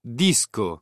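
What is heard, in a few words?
"disco", Italian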